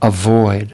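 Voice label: "avoid", English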